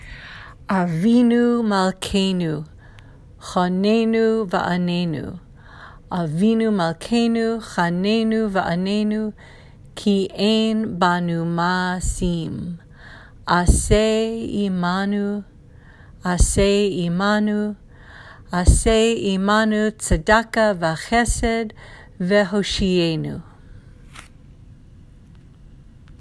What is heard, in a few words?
Avinu malkeinu Hassidic pronunciation spoken